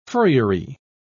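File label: "furriery", British English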